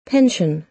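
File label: "pension", American English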